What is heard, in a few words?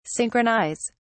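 "synchronise", English, British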